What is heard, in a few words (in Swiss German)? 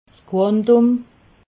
"quantum", alsace